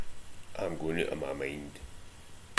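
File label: "oot", slang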